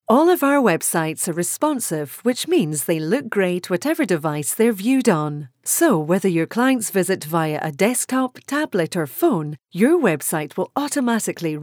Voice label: Scottish Female; regional voiceovers